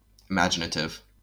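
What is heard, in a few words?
"imaginative", American English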